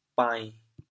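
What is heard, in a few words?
"bain7", Teochew, chaoyang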